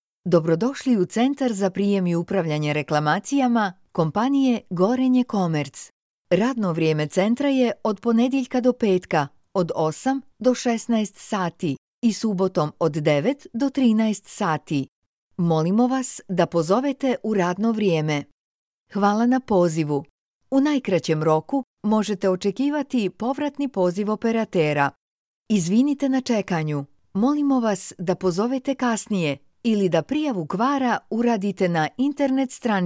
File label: IVR; BOS